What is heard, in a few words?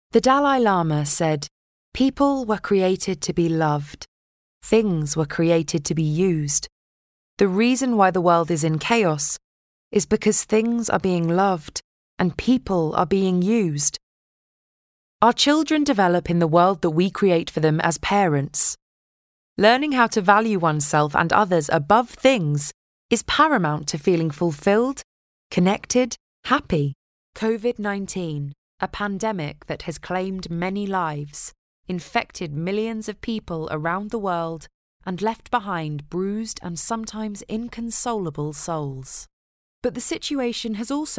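English female; lektoring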